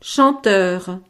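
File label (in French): "chanteur", French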